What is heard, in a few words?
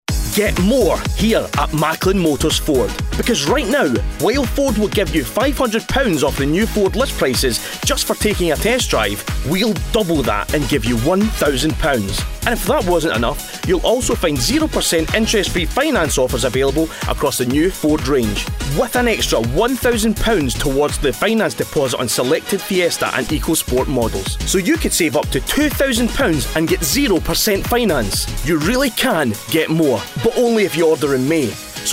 Advert; Macklin Motors; Ford